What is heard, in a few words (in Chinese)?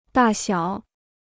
"大小", Chinese